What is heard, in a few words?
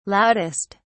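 "loudest", British English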